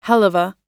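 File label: "helluva", American English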